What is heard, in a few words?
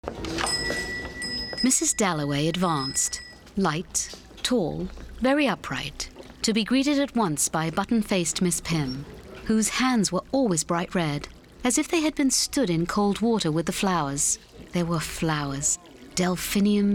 audiobook; dalloway